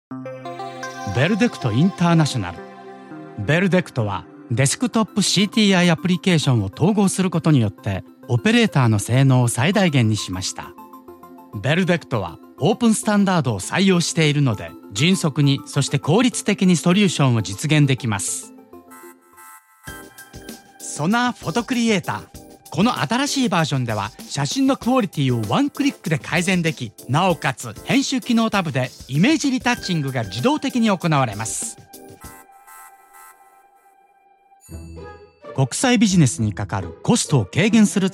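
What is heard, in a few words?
Japanese Corporate Promo; GoLocalise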